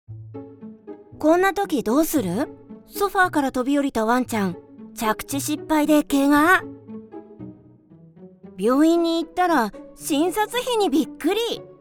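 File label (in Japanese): コミカル ペット保険, ナレーション; VOICE SAMPLE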